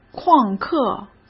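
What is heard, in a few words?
"旷课", Mandarin Chinese; chinese flashcards; hsk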